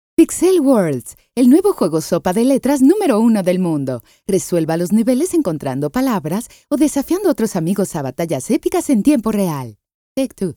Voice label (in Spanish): locutora mexicana; mexico